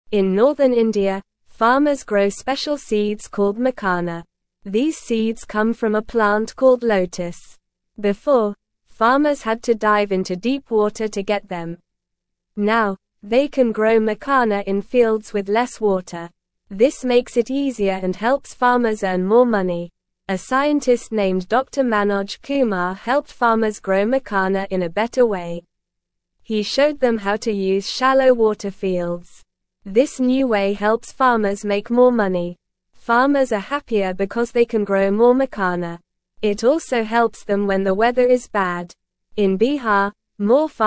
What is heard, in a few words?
English Beginner NORMAL Reading; Growing Makhana Seeds Helps Farmers Earn More Money